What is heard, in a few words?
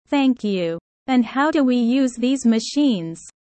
low; studying abroad